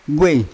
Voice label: "ugei" pig, Yawa